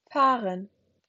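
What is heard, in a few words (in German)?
"fahren", German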